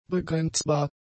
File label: "begrenzbar", German; adjectives